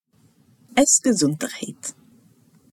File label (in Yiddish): Es gezunterheyt, Jiddish